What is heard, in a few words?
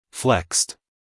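"flexed", American English, male; everyone-can-use-english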